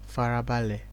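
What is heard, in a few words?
"farabale", Nigerian Pidgin, male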